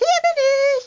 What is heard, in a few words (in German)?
"Over Here", GERMAN; worms final 3